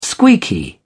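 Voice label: "squeaky", British English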